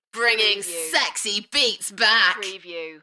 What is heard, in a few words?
UK female Enthusiastic; Bringin sexy beats back; beanstalk audio